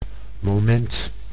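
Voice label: "moment", Dutch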